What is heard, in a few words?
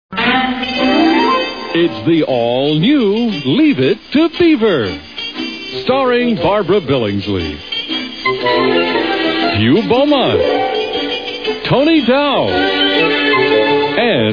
Commercials; Beaver-Idol; Freekin Weird Audio